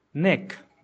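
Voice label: "neck", English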